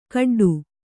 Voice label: "ಗೊಡ್ಡಿ", Kannada